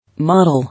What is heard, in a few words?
"model", English